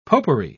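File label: "popery", British English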